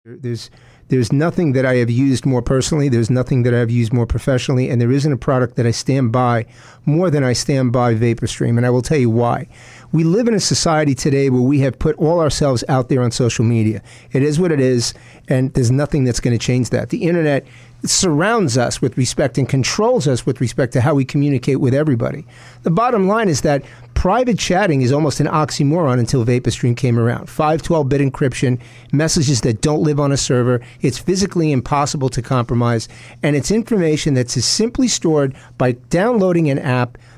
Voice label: TESTIMONIAL